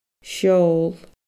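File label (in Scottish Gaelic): "seol", Scottish Gaelic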